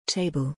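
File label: "table", English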